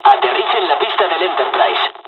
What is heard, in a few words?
landing, dialogs spanish; War Thunder; midway